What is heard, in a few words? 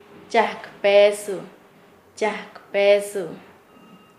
"que regala", Nasa Yuwe; paez